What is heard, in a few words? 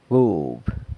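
"gub", San Lucas Quiaviní Zapotec; broom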